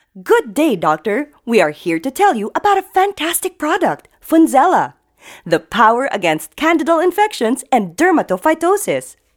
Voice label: English, Informative, Selling

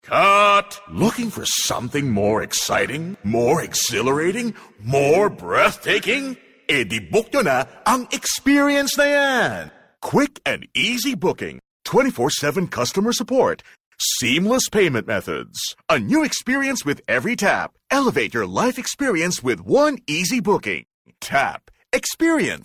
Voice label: English (Bold, Lively)

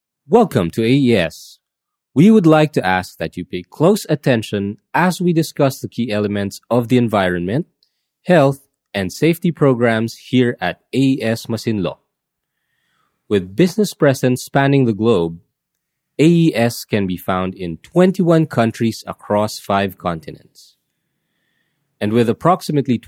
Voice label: English (Tutorial, Neutral Accent)

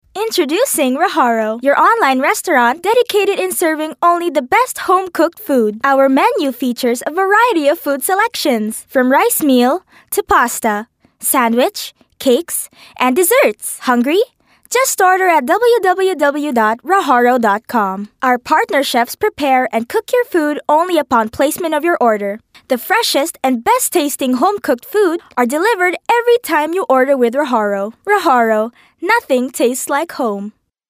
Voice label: English, Friendly

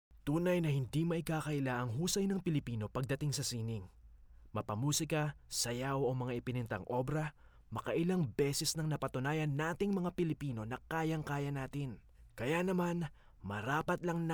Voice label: Tagalog, Serious

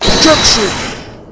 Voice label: "Objection", Male

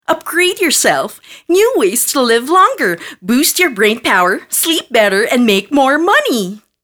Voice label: English (Confident)

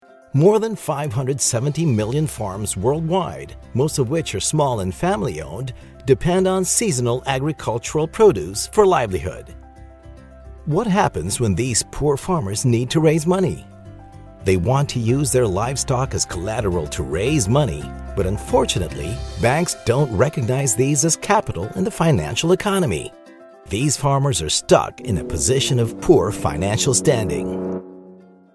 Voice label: English, Informative

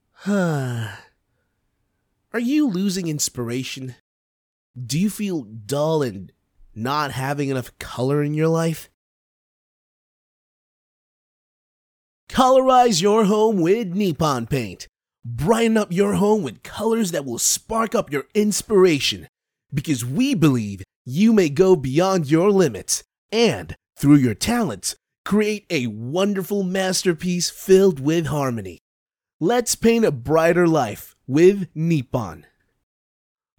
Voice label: English, conversational

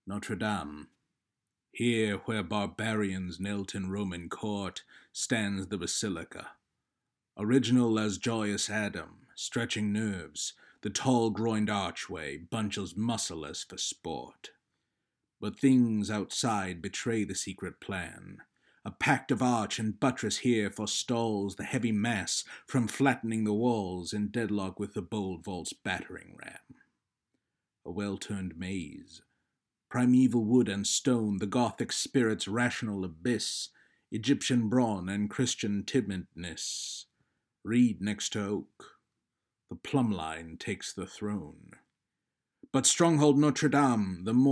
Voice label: English; NotreDame

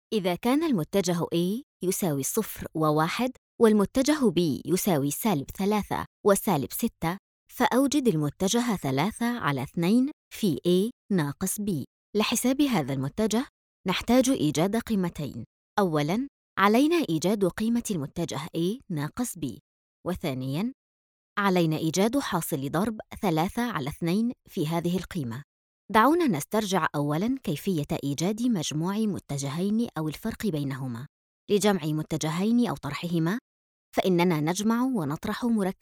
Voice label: arabic

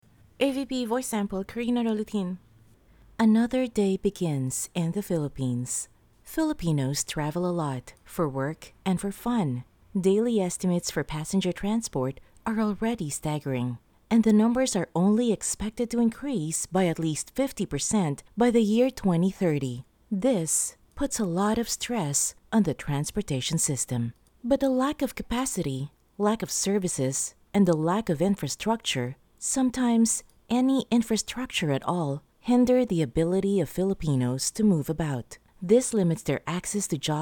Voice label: English, Informative

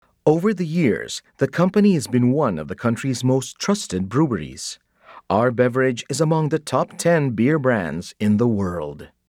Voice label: English (Informative, Clear, Natural)

